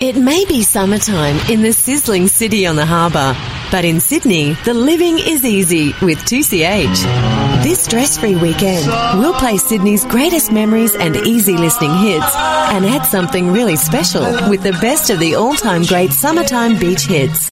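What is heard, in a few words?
Australian English; Sydney 2CH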